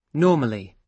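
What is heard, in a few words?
"normally", British English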